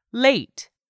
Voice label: "LATE", english; 3000 words